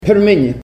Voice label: "permini", Ninilchik Russian; food

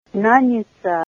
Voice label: nurse, Ninilchik Russian; Mn'e náda béyb'ichku nán'it'; babies

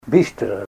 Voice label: fast, Ninilchik Russian; b'ístra; adv. fast.

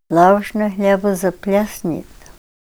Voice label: "laushnay hl'eba zaplesnit", Ninilchik Russian; quality

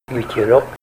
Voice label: light wind, Ninilchik Russian; w'it'irók n. light wind.; weather

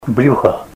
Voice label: "belly", Russian

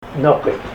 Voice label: claw, Ninilchik Russian; At night

